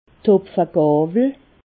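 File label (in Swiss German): "hopfegawwel", Alsatian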